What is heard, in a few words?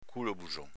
"culobuson", dialetto veneto; agg. inchinato